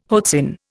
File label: "hoatzin", Indian